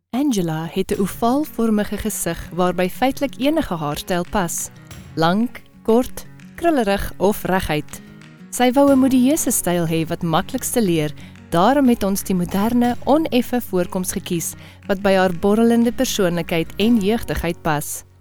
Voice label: afrikaans corporate videos

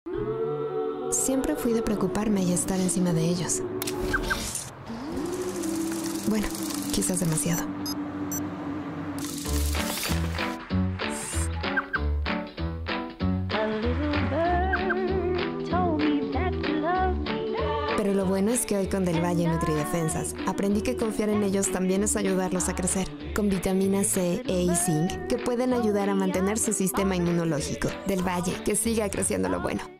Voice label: Spanish Latin American neutral promos

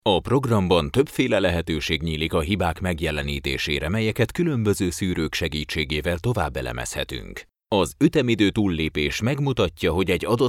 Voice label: hungarian e-learning

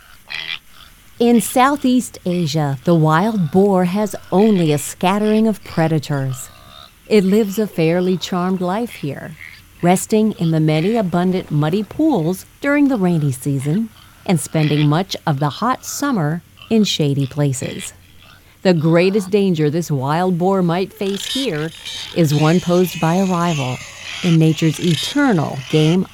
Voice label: English American narration

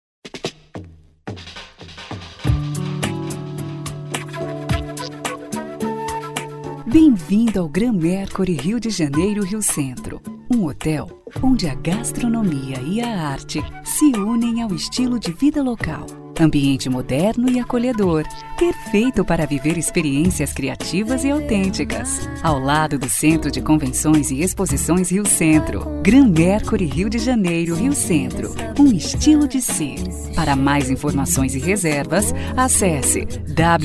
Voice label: portuguese brazilian

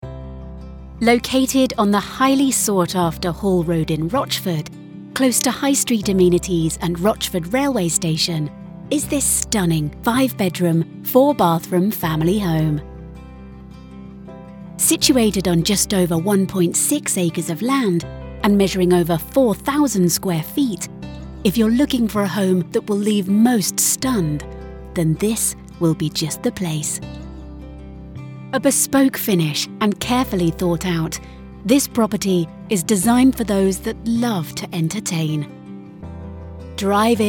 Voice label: english british real estate

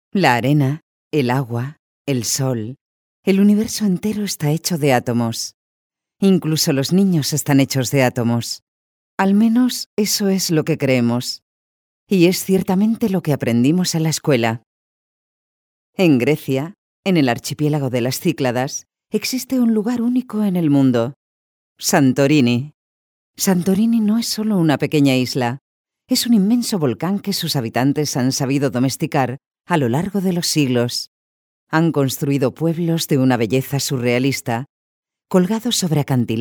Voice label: spanish documentaries

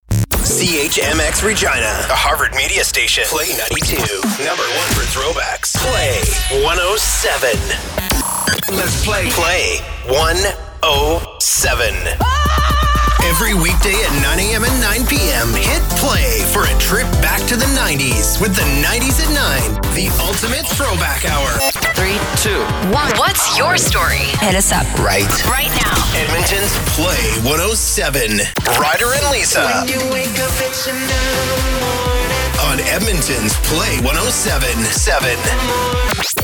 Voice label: english canadian radio imaging, voice actors

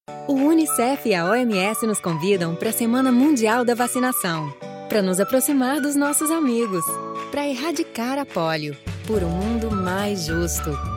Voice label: Portuguese Brazilian commercial

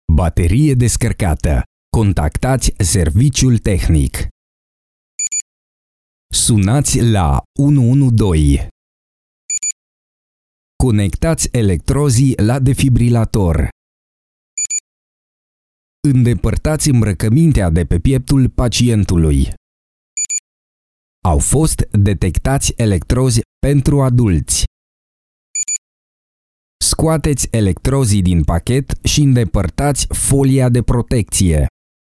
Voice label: romanian medical narration

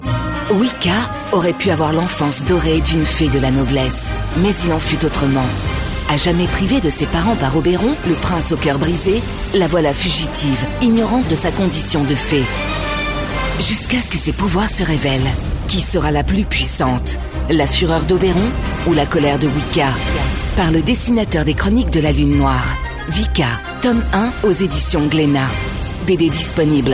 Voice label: french movie trailers

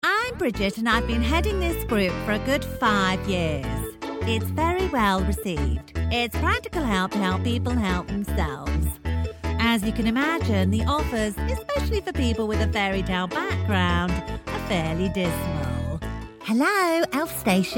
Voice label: english british animation

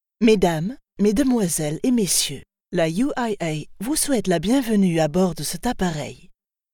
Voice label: French others

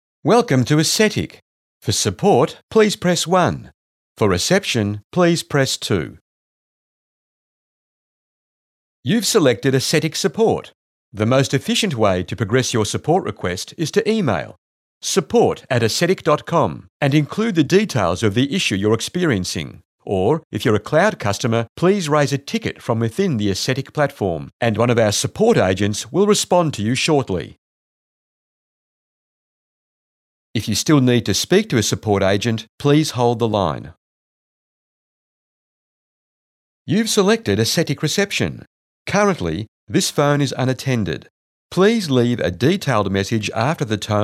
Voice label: english australian ivr

